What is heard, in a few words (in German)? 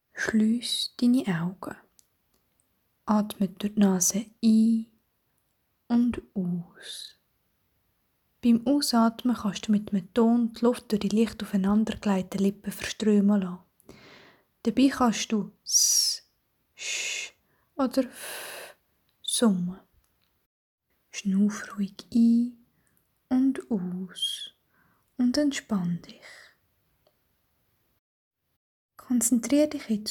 entspannungsubung mundart